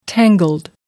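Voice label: "tangled", British English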